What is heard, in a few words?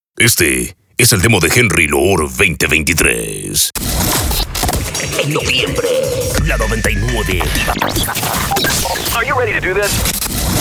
RADIO REMIX